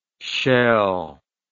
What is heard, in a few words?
"scheel", English